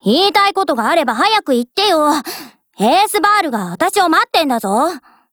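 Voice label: DIALOGUE, Japanese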